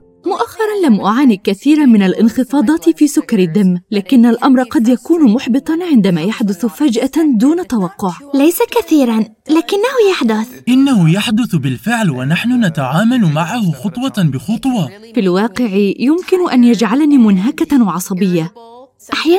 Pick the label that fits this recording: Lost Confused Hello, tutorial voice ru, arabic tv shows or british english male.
arabic tv shows